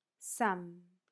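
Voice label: "some", English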